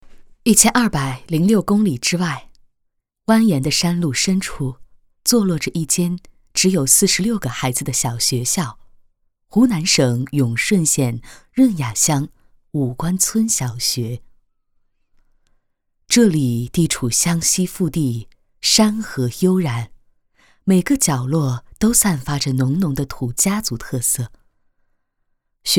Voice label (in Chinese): 女, 纪录片, 公益计划; 业主的R.S公益计划